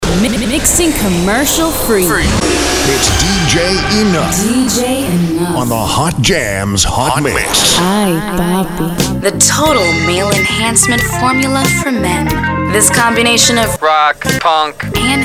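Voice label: imaging voice; Formats Urban, Hip Hop, Spanish; From smooth and sexy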